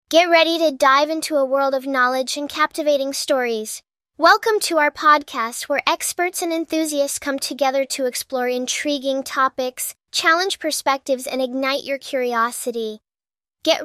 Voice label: Girl Child

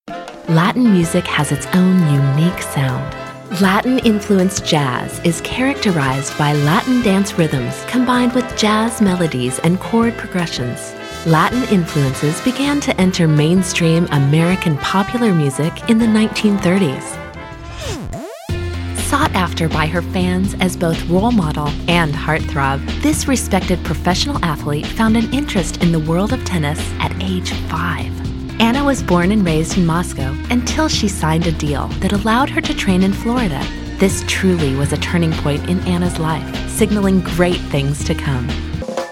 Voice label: Narration

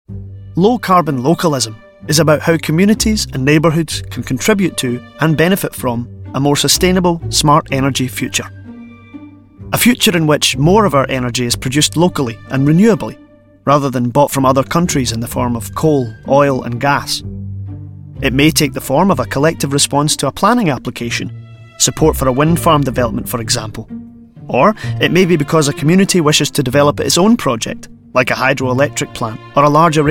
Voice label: Corporate; Low Carbon Localism

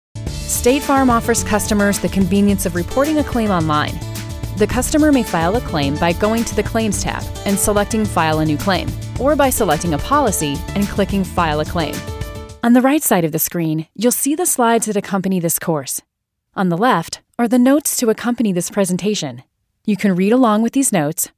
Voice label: E Learning

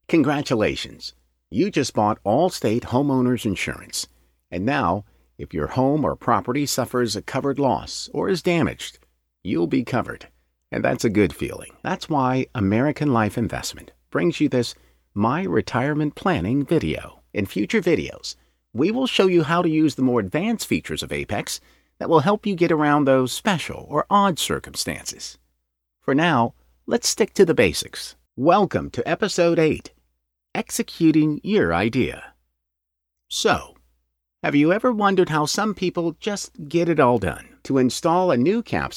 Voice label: E LEARNING

